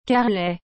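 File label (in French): "carrelet", French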